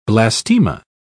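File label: "blastema", British English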